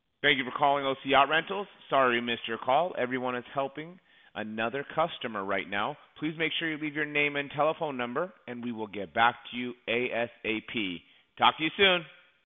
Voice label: ivr greeting